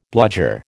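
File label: "bludger", American English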